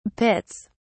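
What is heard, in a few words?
"pits", British English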